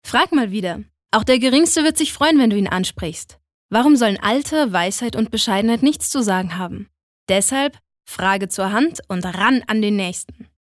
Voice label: Werbung Dynamisch

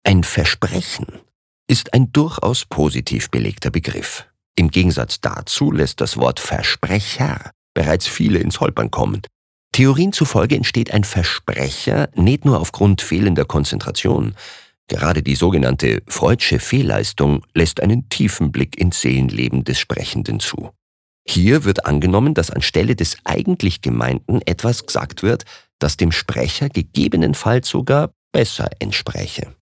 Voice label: Dialekt Bayerisch

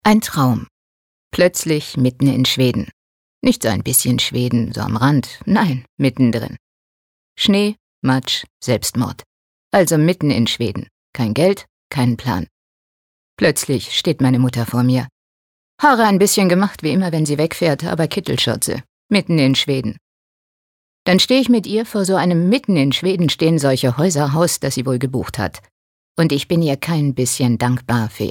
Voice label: Schauspiel Neutral

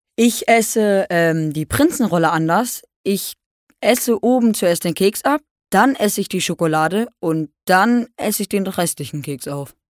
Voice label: Fremdtake Dynamisch

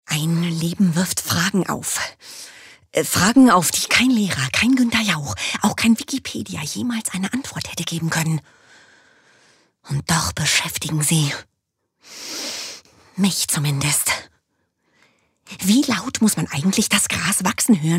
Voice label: Schauspiel Geheimnisvoll

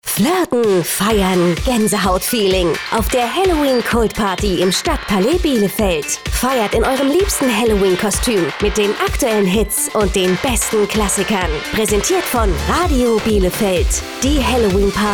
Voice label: Fremdtake Cool

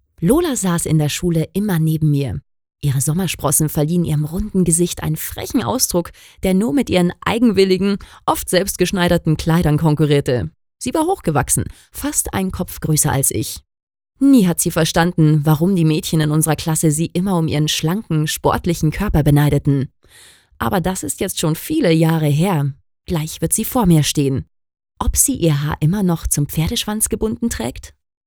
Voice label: Neutral; Schultage

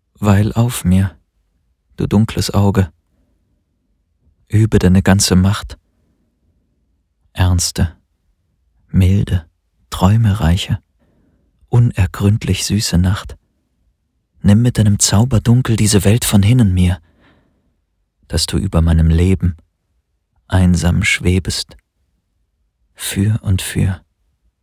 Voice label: Fremdtake Sanft

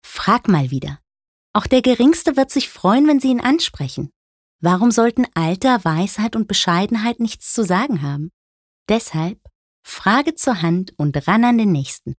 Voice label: Werbung, Sanft

